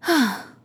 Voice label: healed, RPG Voice; RPG Voice Starter Pack